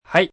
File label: "Yes", foreign, male, Japanese; Response; (yes), (human voice speaking); VoiceBox